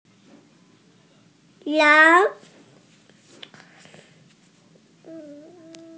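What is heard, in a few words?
"goat", Mandarin Chinese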